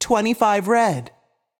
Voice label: voice Over 25, roulette; europeanroulette3